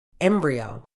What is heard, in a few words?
"embryo", uk